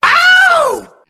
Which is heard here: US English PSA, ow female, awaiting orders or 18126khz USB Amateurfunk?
ow female